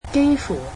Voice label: cantonese almost